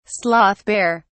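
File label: "sloth bear", British English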